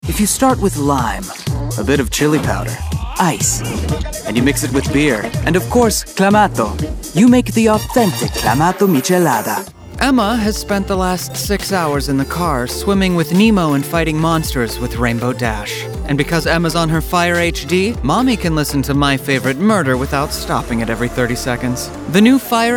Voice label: Commercial